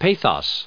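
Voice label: "pathos", us English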